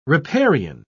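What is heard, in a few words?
"riparian", British English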